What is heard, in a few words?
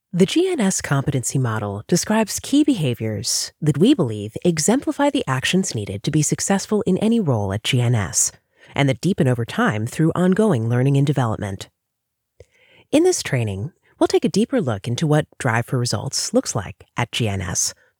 Microlearning